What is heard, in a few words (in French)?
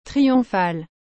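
"triomphal", French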